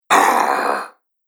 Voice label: Pirate "Arhh"; Talking Sound Effects; Fantasy Sound Effect